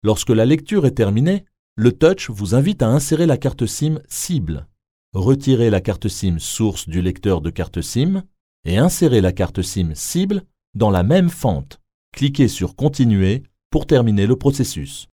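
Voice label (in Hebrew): French, קריינות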